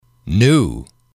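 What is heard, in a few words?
"new", English, male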